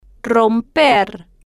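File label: "romper", Spanish, female, slow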